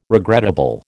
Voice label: "regrettable", American

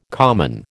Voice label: "common", American

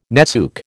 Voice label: "netsuke", American

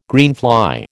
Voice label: "greenfly", American

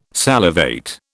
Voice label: "salivate", British

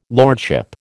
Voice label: "lordship", American

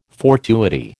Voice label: "fortuity", American

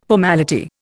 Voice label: "formality", Indian

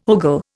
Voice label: "ogle", Indian

